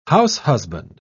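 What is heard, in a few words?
"househusband", British English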